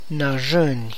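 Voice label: Tlacochahuaya Zapotec; wide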